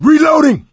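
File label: reloading, male; Left 4 Dead 2; coach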